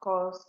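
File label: "cos", Nigerian Pidgin, female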